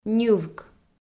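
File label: "ньывк", Komi, female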